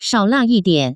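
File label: "shaolalian", Taiwan Mandarin; delivery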